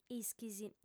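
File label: "ischis", female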